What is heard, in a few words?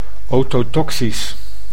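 "ototoxisch", Dutch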